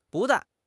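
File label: "bu4 dan4", Mandarin Chinese; HSK3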